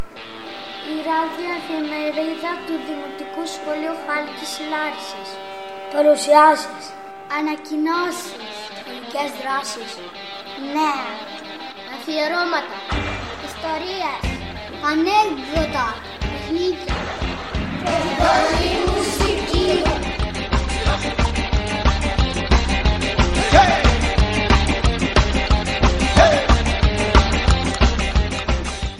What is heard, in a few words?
radiospot